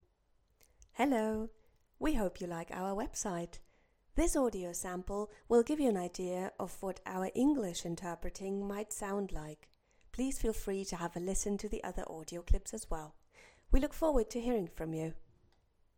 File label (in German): Englisch